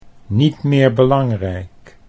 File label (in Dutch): "niet meer belangrijk", Dutch